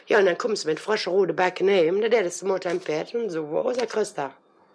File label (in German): solingen weihnachten Kontraktionen, dialekt; sprache